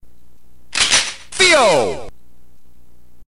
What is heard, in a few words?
narrator